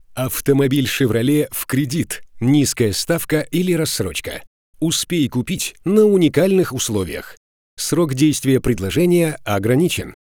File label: Taimer M voice